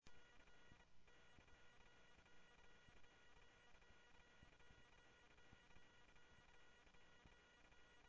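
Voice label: Simulcast Chandler Patrol B, scanner; Chandler-Ptrl B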